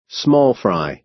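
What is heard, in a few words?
"small fry", American English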